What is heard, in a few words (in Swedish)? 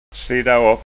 "siddaopp", Skånska